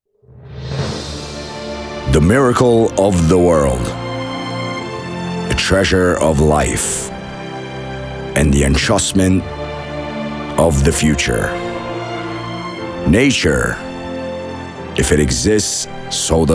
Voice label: corporate image film

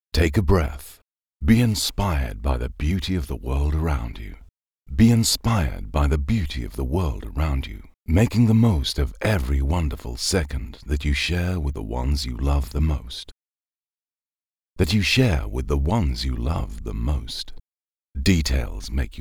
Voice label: English Voice Over theatrical Epic

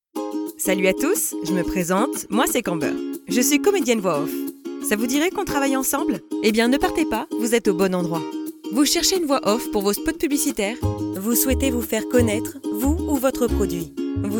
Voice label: French Voice Over

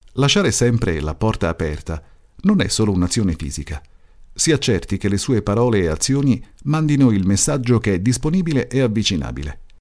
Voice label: narrator

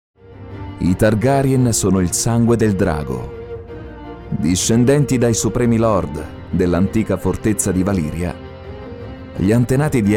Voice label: Promo voiceover; Game of Thrones

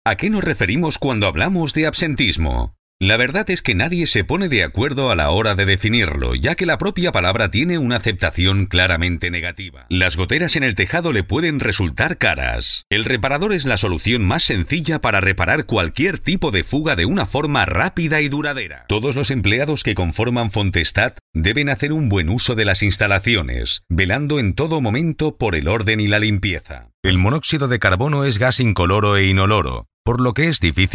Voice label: Spanish Voice Over Different Records

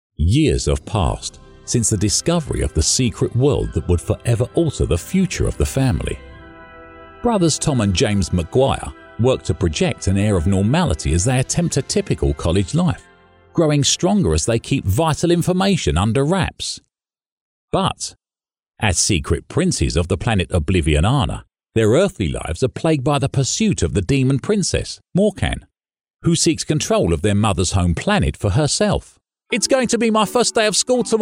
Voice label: Male British Audio Book

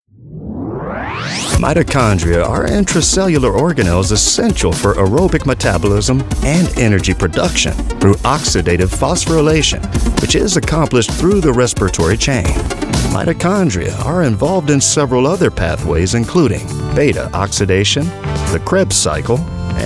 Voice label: English Voiceover

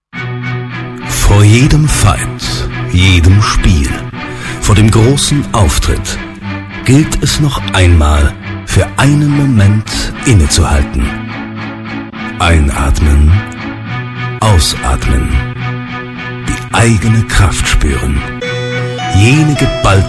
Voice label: Strong Powerful

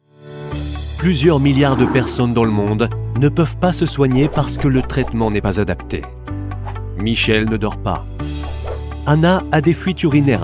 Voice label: french voice over brisk cheerful

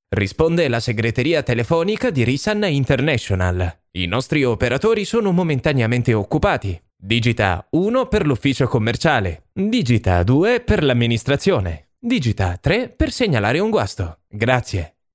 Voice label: telephone switchboar

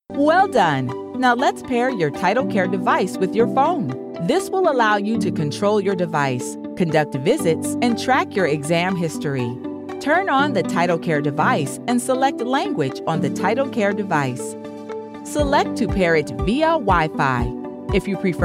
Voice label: English Voice Over

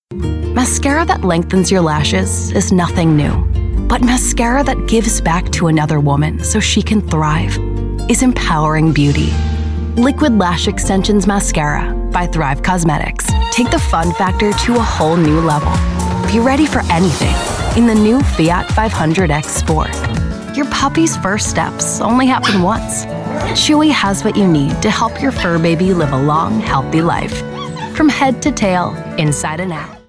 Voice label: English Voice Over

